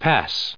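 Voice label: "pass", US English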